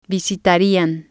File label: "visitarian", Spanish